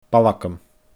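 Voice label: "balgam", Scottish Gaelic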